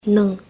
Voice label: "N ung", Konyak; You

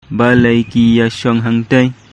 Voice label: Khasi

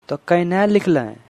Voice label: "Tau kainah likhlaih", Angika language; How did you write?

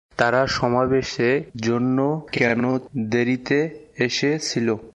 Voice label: Bengali, Bangladeshi Accent; Why are they late for the meeting?; Tara samabes er jonno kano derit

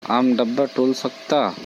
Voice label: Korku; Aam dabba tul sakta?

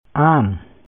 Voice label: Santali; You

